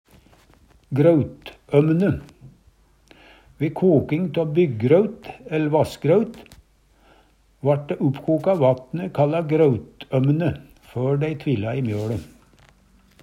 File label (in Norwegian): grautømne, Numedalsmål